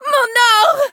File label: hit fr